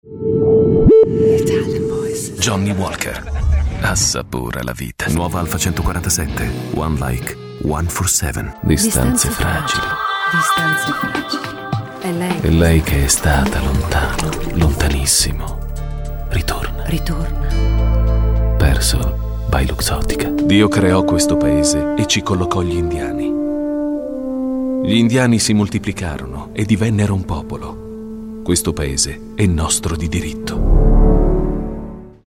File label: ITALIAN VOICES Intense Warm, male voices